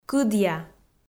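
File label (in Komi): "кыдъя", Komi, female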